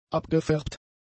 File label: "abgefärbt", German; adjectives